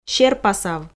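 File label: "серпасав", Komi, female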